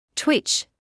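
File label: "twitch", British English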